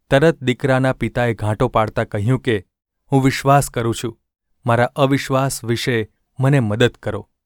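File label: gu ulb mrk, Gujarati; mrk 9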